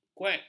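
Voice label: "kuek", Malay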